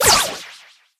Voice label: gene atk dry